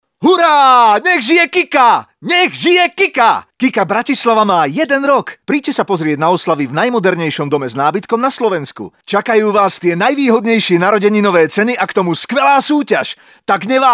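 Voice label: Male Slovak commercial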